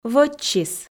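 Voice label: "вӧччис", Komi, female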